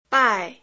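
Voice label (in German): Phoneme, chinesisch; Silben; Chinesischen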